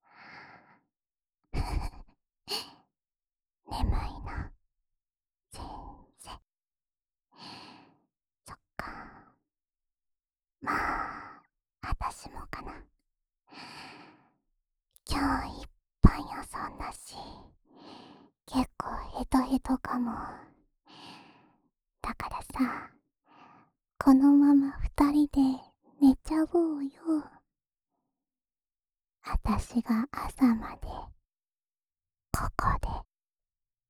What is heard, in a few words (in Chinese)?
安眠用 陪睡（右耳）